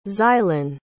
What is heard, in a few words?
"xylon", pronounce